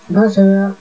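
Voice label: "bonjour", French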